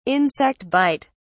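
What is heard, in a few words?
"insect bite", British English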